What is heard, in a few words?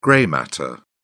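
"grey matter", British English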